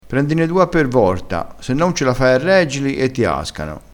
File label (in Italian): "a per vorta", Crespinese